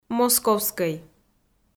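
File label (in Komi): "московскӧй", Komi, female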